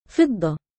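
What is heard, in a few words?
female name "fiDDah", Arabic; فِضَّة; フィッダ; 銀、シルバー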